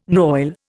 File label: "noil", Indian